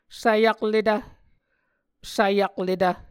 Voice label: "svyakletv", Muscogee